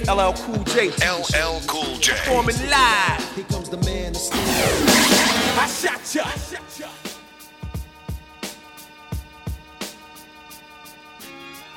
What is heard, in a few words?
Radio Commercials - In Concert Radio Spot; 1998